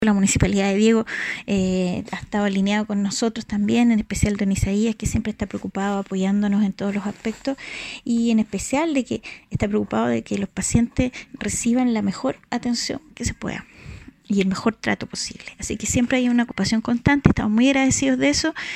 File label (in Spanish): Cuña